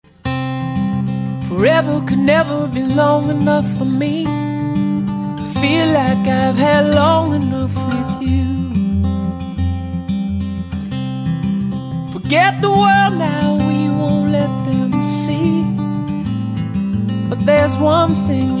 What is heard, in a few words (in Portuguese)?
ANIV SOGRA DISTANTE MASC, telemensagem aniversario sogra